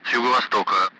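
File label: Speech, Russian; Allied Flight; DCS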